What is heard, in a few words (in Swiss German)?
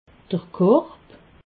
"korb", alsace